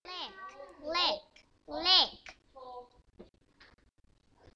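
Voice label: "lick", spoken word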